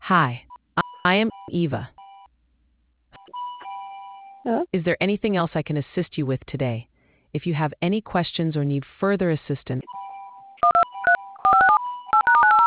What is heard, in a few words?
conversation